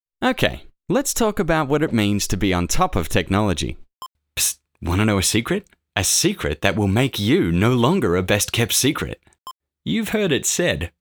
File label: Casual Friendly Australian Male